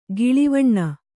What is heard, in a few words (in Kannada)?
"ಗಿಳಿವಣ್ಣ", Kannada